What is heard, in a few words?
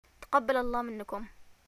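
greeting hajj, Yemeni arabic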